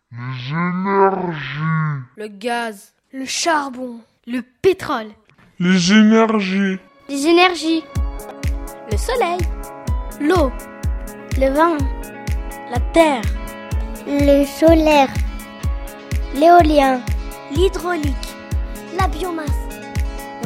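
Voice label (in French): pub ecocitoyenne les énergies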